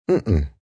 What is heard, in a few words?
Mm Mm No, male; Reaction; Exclamation